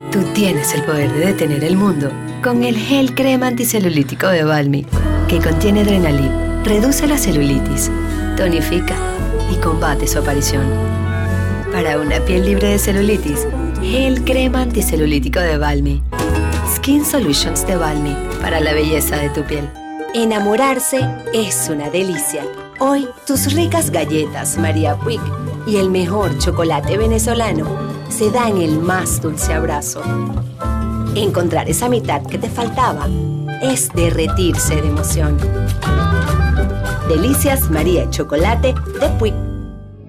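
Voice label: Spanish commercial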